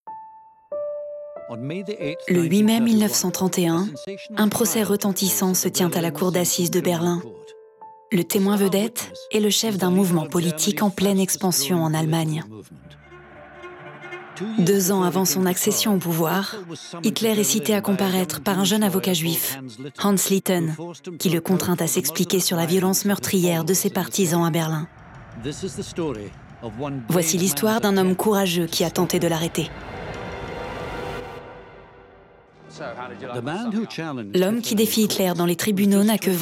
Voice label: narration; HITLER ON TRIAL; Extraits non diffusés